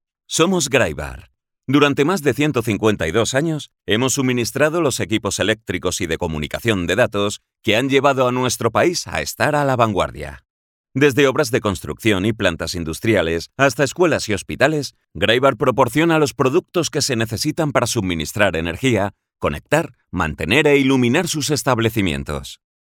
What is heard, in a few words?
Spanish e-learning